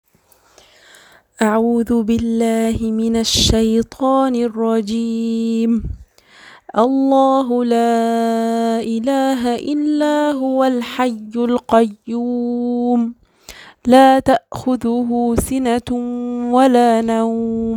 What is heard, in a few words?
voice, recitation